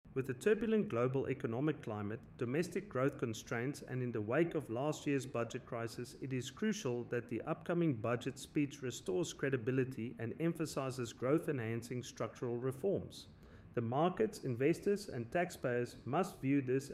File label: budget speech actual english audio; budget speech 2026 fiscal crossroads